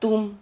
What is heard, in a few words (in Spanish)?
"tum", Silabas